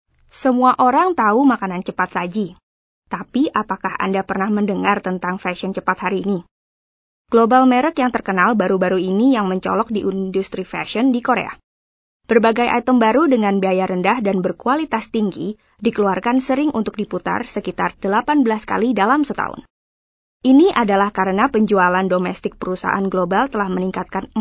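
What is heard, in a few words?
Indonesian, female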